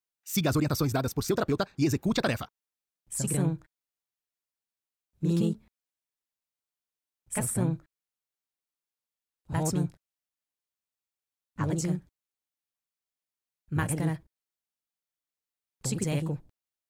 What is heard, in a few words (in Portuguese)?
PERSONAGENS FALA COMPRIMIDA; PERSONAGENS FALA COMPRIMIDA AMOSTRA